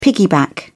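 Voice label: "piggyback", British English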